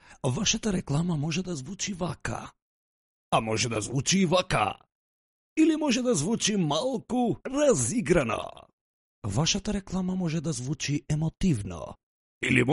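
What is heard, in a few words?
Macedonian, male, narrative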